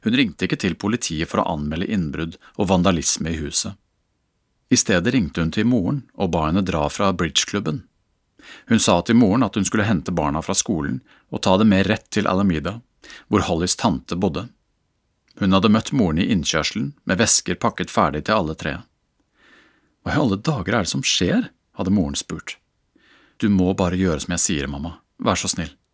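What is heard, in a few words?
Norwegian Audiobook Male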